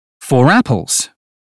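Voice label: four apples, British English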